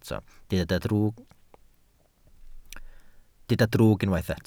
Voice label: "drwg", Welsh